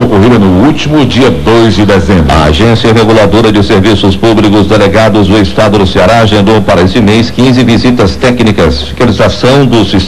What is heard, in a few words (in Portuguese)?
radio noticias; radio verdes mares am